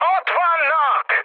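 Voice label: Hungarian enemy detected mood high, speech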